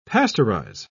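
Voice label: "pasteurise", British English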